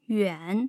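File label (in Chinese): "yuan3", Mandarin; tough sounds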